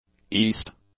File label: "east", English; adjectives